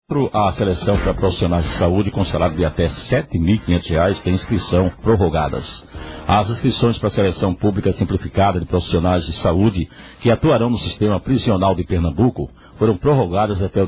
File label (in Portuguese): radio; saude prisional inscricoes; radio nova timbauba